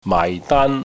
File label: "maai4 daan1", cantonese